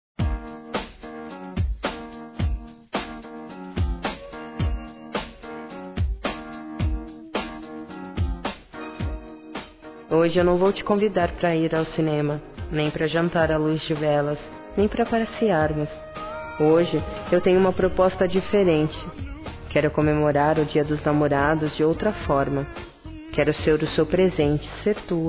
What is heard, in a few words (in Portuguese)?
Sensual Feminino; Dia Dos namorados; Telemensagens 2017